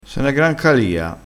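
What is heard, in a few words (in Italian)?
"calia", dialettale crespinese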